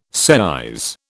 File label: "seise", British